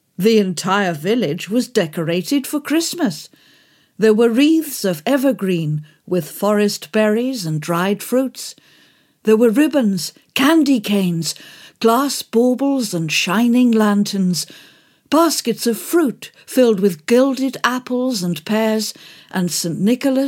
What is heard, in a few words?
STORY; The Christmas Tree; Music Narrative